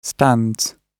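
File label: "stands", British English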